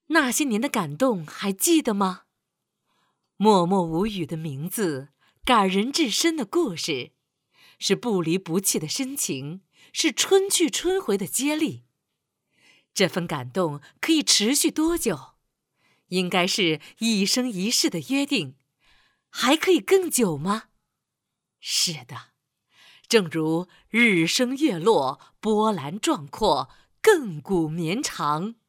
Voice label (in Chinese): 女 专题 大气有感情 人物; 集团感动人物